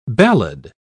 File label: "ballad", pronounce